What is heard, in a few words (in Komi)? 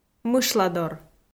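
"мышладор", Komi, female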